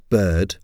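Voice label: "bird", English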